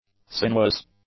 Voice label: "sinuous", English; adjectives